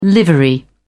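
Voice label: "livery", British English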